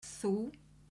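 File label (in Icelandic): "þú", Icelandic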